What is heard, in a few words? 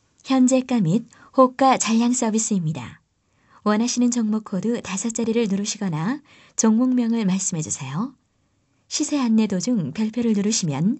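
Korean, female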